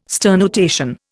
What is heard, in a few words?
"sternutation", Indian